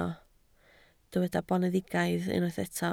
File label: "boneddigaidd", Welsh